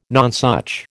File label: "nonsuch", American